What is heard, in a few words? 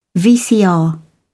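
"vcr", British English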